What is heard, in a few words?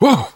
reaction startled, namvet, survivor voice; Left 4 Dead 2; left4dead2 dlc3